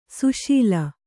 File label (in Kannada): "ಸುಸಿಲು", Kannada